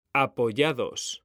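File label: "apoyados", Spanish, male; mekanta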